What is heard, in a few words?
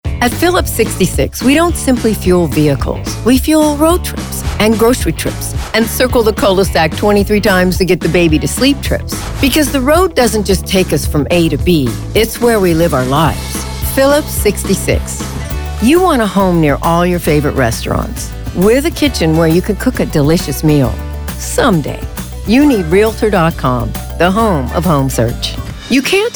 Commercial